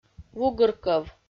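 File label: "вугыр кӧв", Komi, female; audio dict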